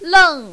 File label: "leng4", mandarin, female